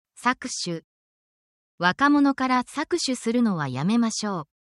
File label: negative japanese